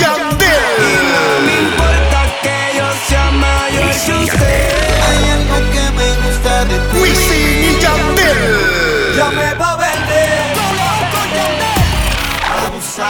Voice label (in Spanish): voz para conciertos; DJ Drops